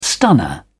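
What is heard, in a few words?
"stunner", English, uk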